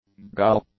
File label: "gull", English; nouns